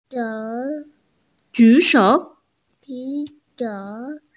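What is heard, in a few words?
"ju3shou3", Mandarin Chinese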